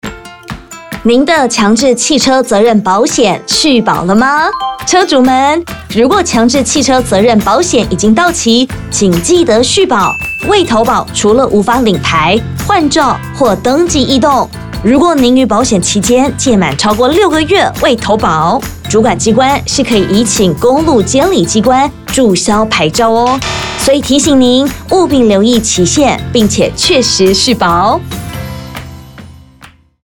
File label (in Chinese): 國語; 11月30日起，微型電動二輪車新車須投保強制險才能上路，現行已領有合格標章行駛上路的微型電動二輪車，應該在施行後2年內投保強制險。